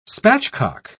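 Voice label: "spatchcock", British English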